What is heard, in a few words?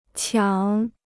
"抢", Chinese